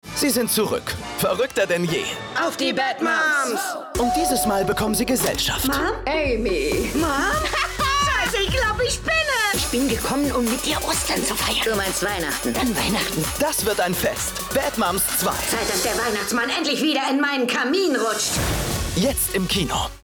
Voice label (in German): kinotrailer; bad moms